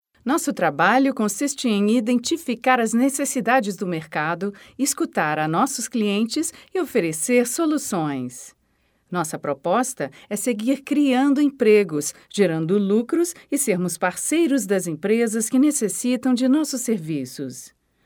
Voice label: brazilian voiceover locutora brasil, locutora brasileira